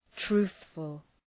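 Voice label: "truthful", English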